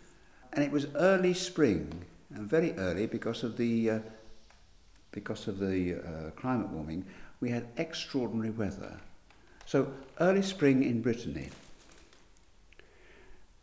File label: Dad recites his poem Bretagne; Holiday 2001 in France